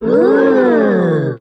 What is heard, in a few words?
"ooh" cartoon; ooh ahh